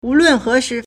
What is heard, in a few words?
"wu2lun4he2shi2", Chinese